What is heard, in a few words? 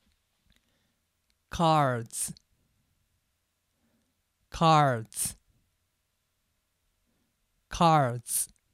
cards, pronunciation